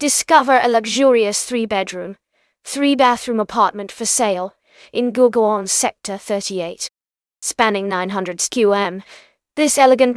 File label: narration audio